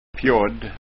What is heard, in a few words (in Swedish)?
"pjodd", skanska ord